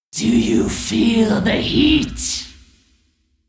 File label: Female Dragon Boss Idle; Onyxia